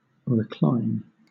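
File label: "recline", British; pronunciations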